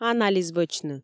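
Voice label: Komi, female; анализ вӧчны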